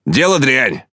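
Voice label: Russian Speech Quick Commands Caramba; World Of Warships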